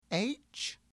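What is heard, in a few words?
"H", English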